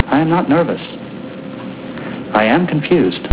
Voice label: nervous confused; startrek